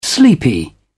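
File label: "sleepy", British English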